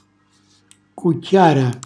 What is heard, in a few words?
"cucchjara", Calabrese; cucchiaio